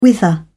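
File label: "whither", British English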